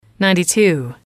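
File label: "ninety two", English, female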